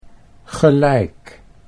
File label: "gelijk", Dutch